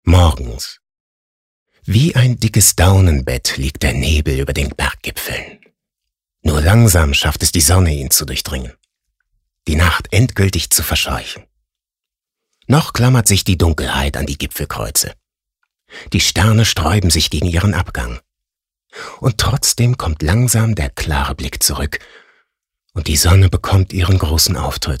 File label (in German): Schauspiel Sanft